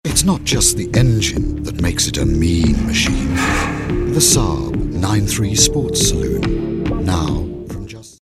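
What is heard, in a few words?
English British, male, commercial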